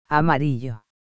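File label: "giallo", Italian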